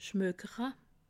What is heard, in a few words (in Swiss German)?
"schmokere", alsace